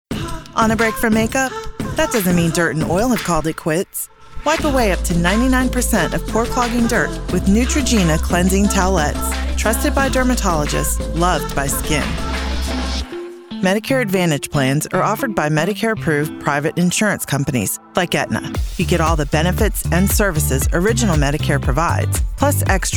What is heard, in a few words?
Commercial